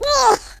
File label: "oof", Gangsta; speech